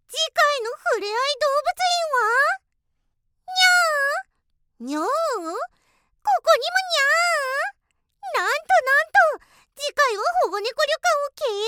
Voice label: バラエティナレーション

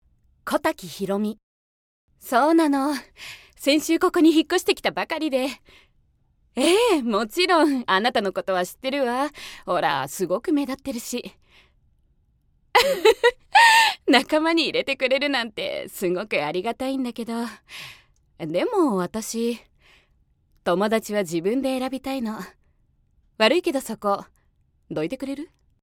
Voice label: 気の強い女性; 神奈川県

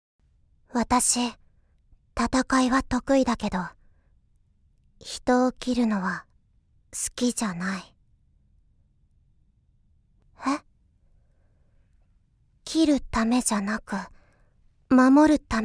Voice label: 機械的な少女

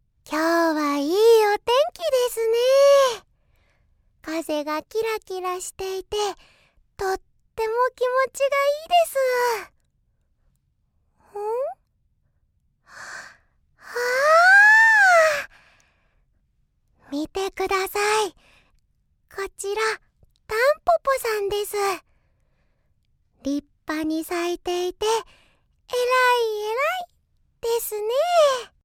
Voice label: ゆるふわ少女

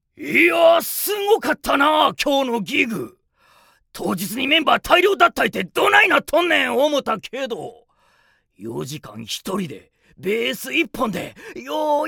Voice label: 嫌味な青年

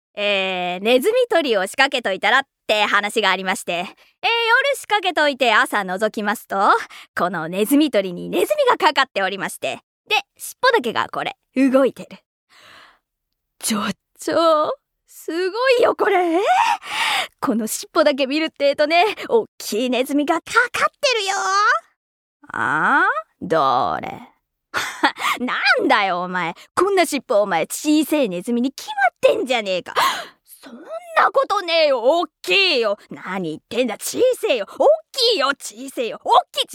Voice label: 落語(小噺)

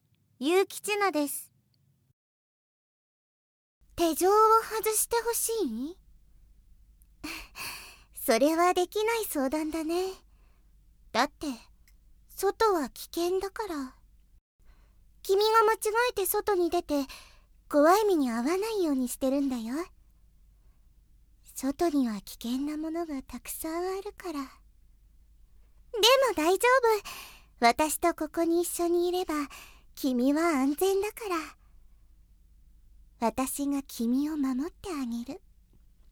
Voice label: ヤンデレ少女, おねだりする女の子, ヒロイン

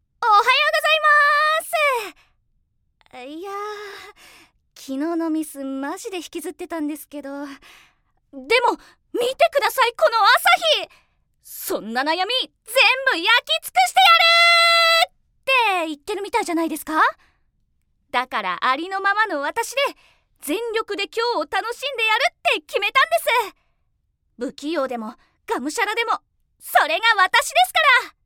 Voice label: ポジティブな女

